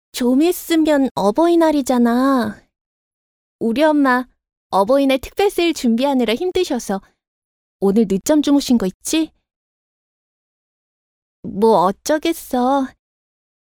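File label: Korean female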